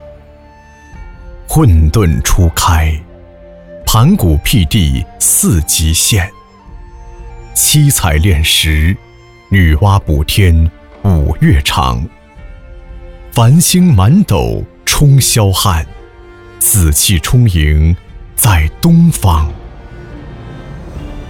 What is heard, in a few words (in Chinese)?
男 专题 古风古韵; 李氏春秋赋